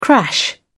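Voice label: "crash", English, British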